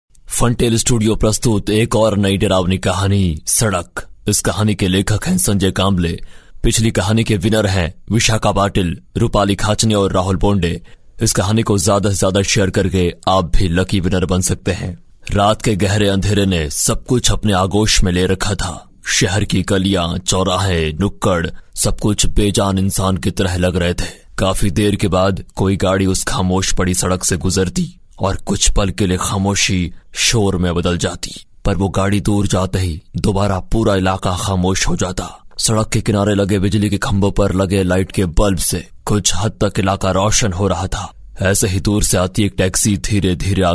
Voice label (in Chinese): 印地语男声